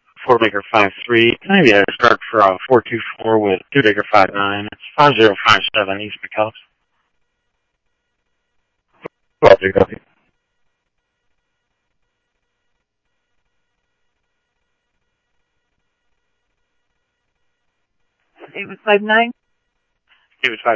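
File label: Simulcast police department, scanner audio trunk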